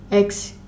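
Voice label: "eks", female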